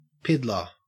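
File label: "piddler", Australian English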